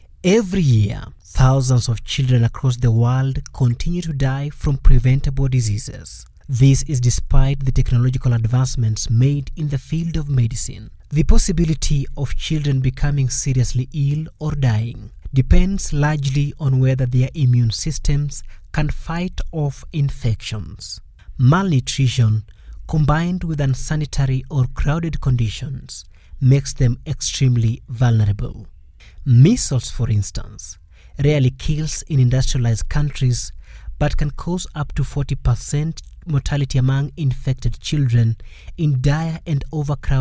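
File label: English Afrikaans Male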